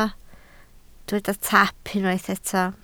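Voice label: "taap" Welsh, spoken word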